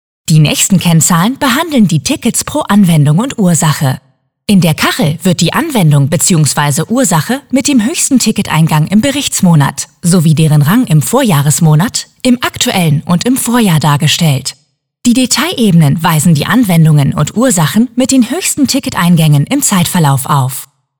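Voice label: female, German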